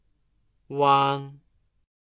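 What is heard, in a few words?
"uang", Chinese; nguyên âm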